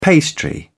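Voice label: "pastry", British English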